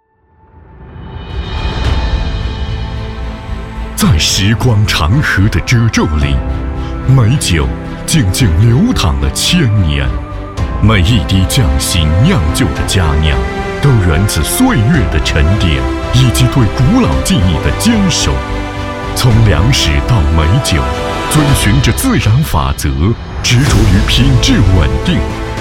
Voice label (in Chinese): 男 大会开场; 中国国际酒业发展大会